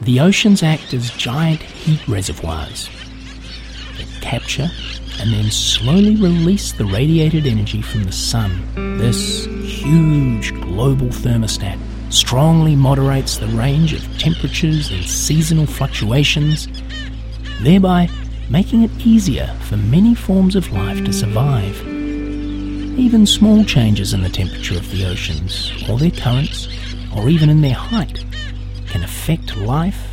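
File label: narration, American English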